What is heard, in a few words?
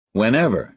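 "whenever", American English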